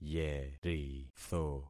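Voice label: "yeritho", concatenated syllables; Affixing experiment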